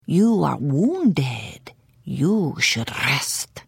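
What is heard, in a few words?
Hello, Khajiit female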